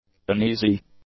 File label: "uneasy", English; adjectives